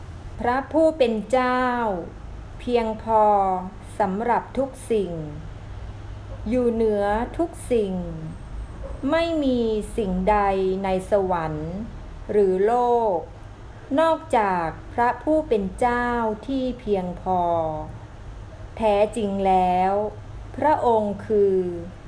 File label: prayer thai; god sufficeth all things